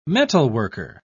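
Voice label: "metalworker", English, British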